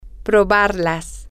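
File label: to taste try it, spanish, female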